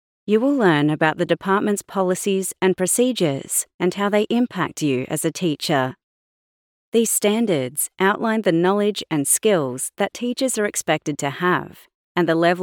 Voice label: Australian eLearning; Department Of Education